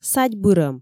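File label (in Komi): садь бырӧм, Komi, female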